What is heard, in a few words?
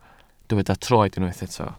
"troed", Welsh